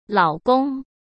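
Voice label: "老公", Mandarin Chinese; chinese-flashcards; hsk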